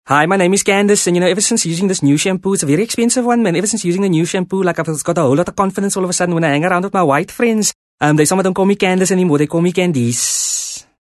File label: English Character Coloured